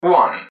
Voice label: "one", english man, subway announcers; MetrostroiAddon